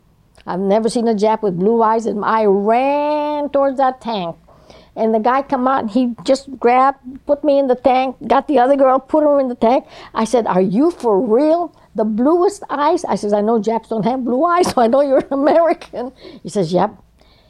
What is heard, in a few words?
lav audio into cam processed